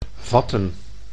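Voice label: "vatten", Dutch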